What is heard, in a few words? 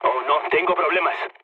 aircraft damaged, mood low, speech; War Thunder